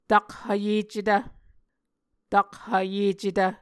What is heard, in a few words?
"takhvyecetv", Muscogee